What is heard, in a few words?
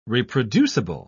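"reproducible", British English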